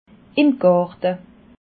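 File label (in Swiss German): "im garte", Alsatian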